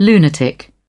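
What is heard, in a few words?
"lunatic", British English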